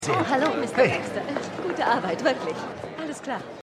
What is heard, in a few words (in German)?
Frau Mit Klemmbrett; Law & Order